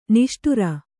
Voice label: "ನೇಷ್ಟಾರ", Kannada

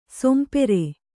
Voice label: "ಸೊಂಪೇರು", Kannada

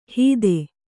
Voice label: "ಹೀದೆ", Kannada

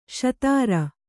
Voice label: "ಶೂದ್ರ", kannada

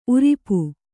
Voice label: "ಊರಪ್ಪ", Kannada

